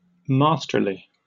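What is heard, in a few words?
"masterly", British English; pronunciations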